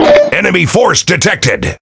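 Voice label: Pirate Speech Detection Enemy Any Ship, English pirate; World Of Warships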